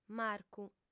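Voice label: "marcu", female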